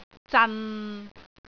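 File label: "zan6", Cantonese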